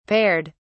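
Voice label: "paired", British English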